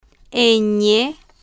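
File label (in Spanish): "ñ", spanish, female, slow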